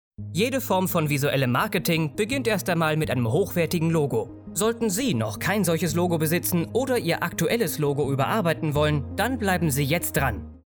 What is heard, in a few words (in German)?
Werbung